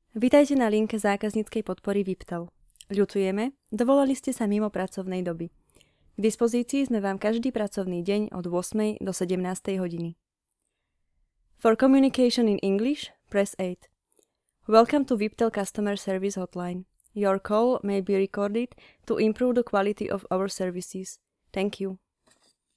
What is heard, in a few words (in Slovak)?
hlas zena; VIPTel